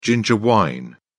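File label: "ginger wine", British English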